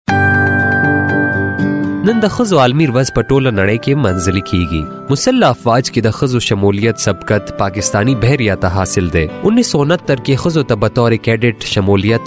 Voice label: Pashto Male